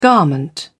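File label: "garment", British English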